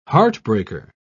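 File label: "heartbreaker", British English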